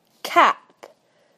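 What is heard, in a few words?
"cap", British English; PictureDictionary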